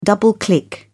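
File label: "double click", British English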